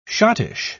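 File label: "schottische", British English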